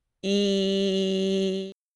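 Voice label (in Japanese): "い", Japanese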